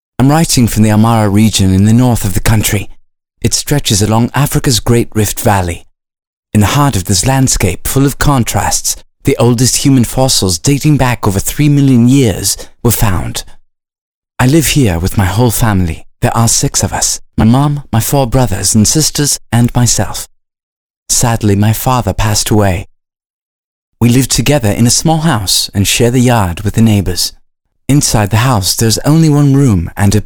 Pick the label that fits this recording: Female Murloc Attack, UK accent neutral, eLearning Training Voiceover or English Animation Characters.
UK accent neutral